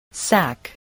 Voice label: "sack", English spelling

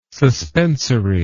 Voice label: "suspensory", English word

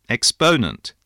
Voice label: "exponent", voice

